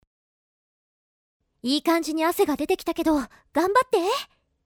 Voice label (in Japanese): cheer 頑張って; ASMR; ランアンドウォークループ用音源; ループ用音源短め